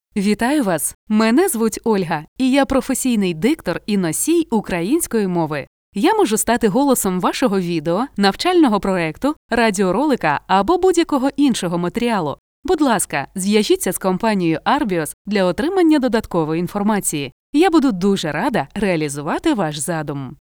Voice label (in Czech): ukrajinský dabing